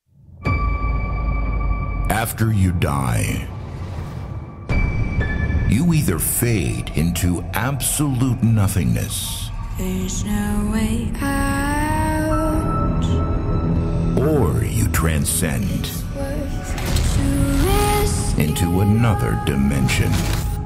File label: Simulation Trailer